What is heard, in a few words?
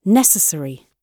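"necessary", British English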